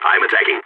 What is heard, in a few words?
in combat, balkan; vo